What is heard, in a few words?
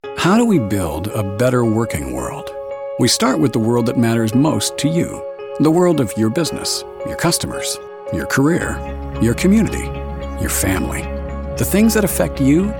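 Canadian English, male, e-learning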